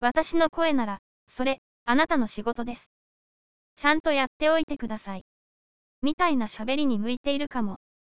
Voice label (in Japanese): 女声優しっかりめ、しゃべり内容特性説明